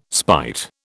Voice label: "spite", British